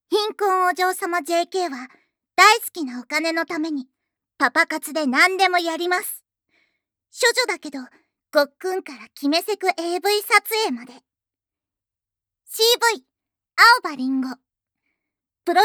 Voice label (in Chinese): 报幕; KU100; 贫困大小姐JK为了最喜欢的钱在爸爸活什么都愿意做！～从处女到吞精到嗑药做爱AV摄影～